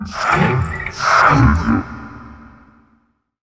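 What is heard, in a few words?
effects talk, Funky Vocals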